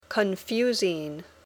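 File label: "confusing", English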